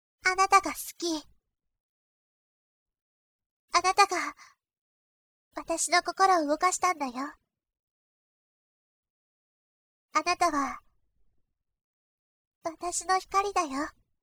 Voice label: girl